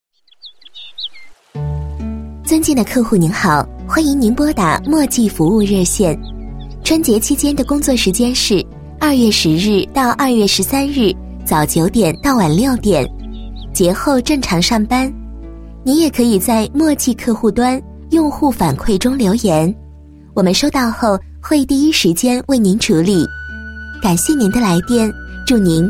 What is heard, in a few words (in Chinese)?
女19 彩铃 清新 自然 甜美 服务热线, 国语女声配音; 墨迹天气